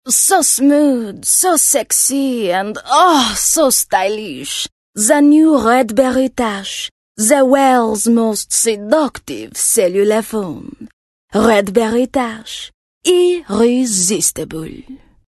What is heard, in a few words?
Softsell French, voice artist